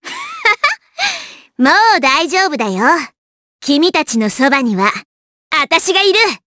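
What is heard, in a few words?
vox Japanese; Cecilia; kingsraid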